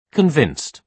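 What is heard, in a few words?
"convinced", British English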